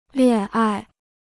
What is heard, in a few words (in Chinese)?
"恋爱", Chinese; liàn ài; (romantic) love